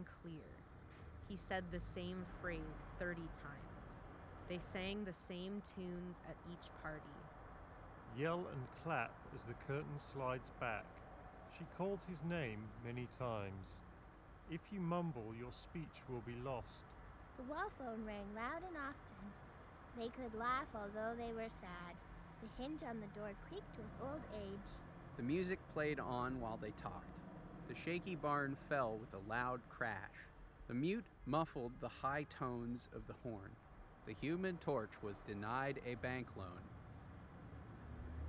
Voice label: KZ ZSN Pro X Street microphone sample

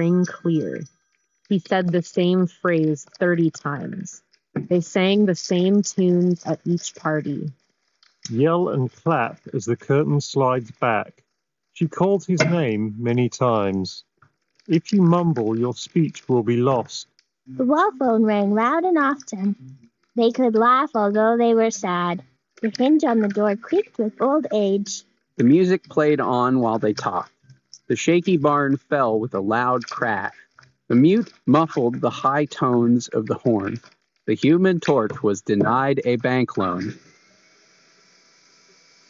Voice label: Office microphone sample; Sony Linkbuds

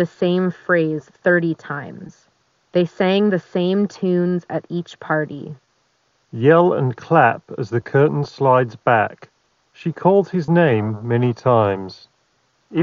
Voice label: Beats Studio Buds Ideal microphone

